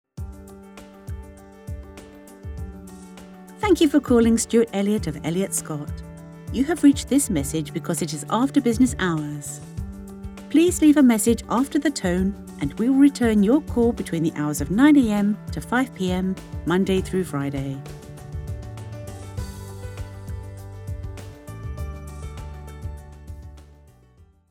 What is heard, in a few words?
Outside Business Hours, IVR; LovelyVoice